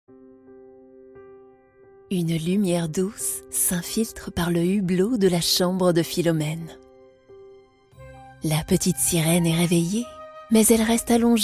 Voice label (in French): Narration